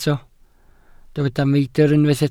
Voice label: "meidr", Welsh